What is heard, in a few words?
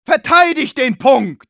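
Medic help me defend, German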